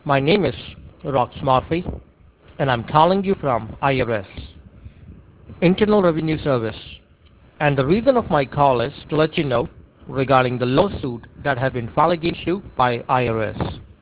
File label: scam voicemail; IRS